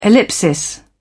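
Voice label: "ellipsis", British English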